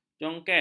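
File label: "jongket", Malay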